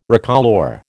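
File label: "recolour", American English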